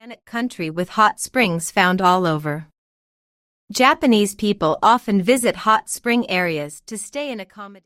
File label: translate sample english